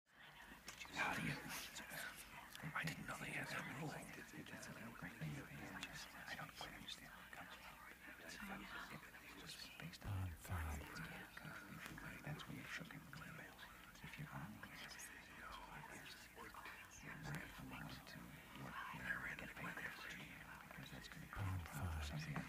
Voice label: voices whispering group; there always talking

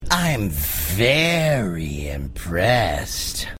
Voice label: impressed

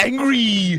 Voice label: angryyyy

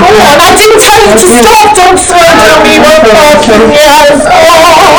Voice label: triggered 2 loud

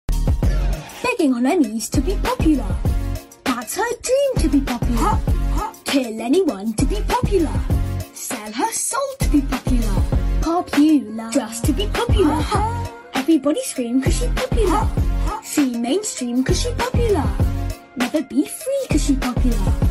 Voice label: British Kid Begging on your Knees to be Popular

